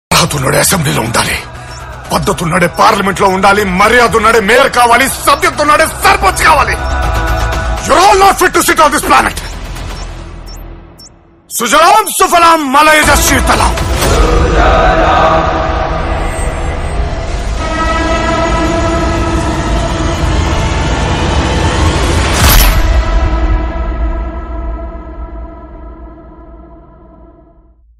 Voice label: trailer dialogue bgm ringtone; Godse; Satya Dev; Aishwarya Lakshmi